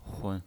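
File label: "hun", neutral tone, pinyin voice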